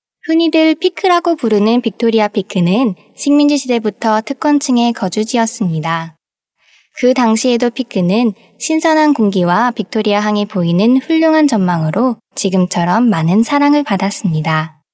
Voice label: Female Korean Other Projects

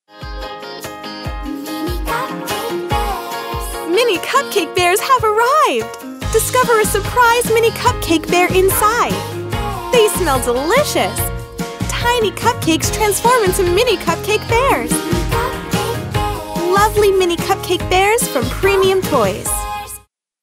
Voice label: US fem TVC

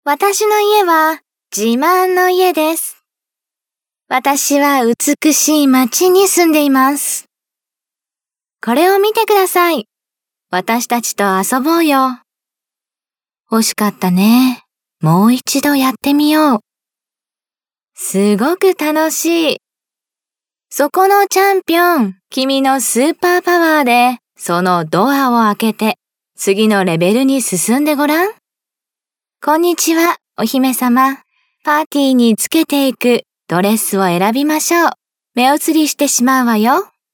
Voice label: JP fem children

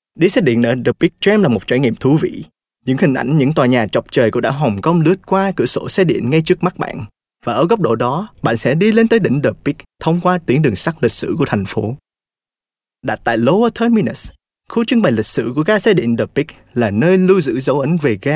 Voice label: Male Vietnamese Other Projects

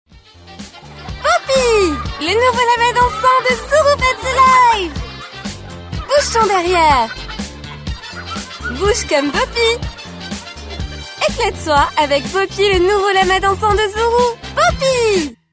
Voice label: FR fem TVC

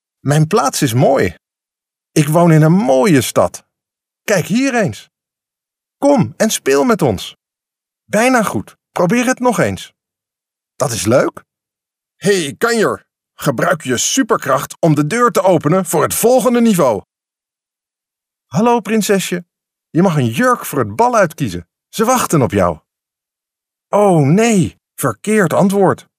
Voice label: NL male children

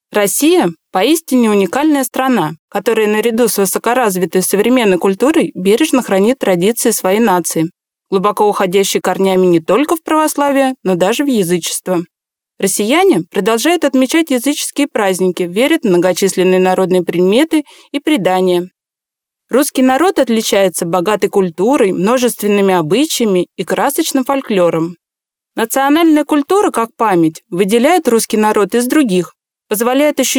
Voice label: Female Russian

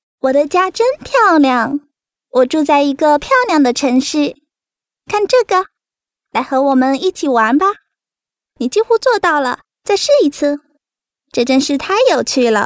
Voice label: Toys Chinese Mandarin Female

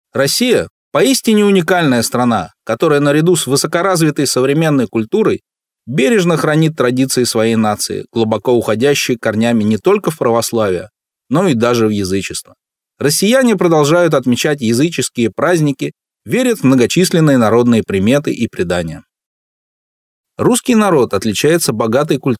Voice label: Male Russian Other Projects

